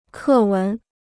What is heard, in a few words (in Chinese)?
"课文", Chinese